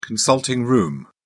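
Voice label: "consulting-room", British English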